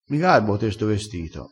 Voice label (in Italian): "garba", crespinese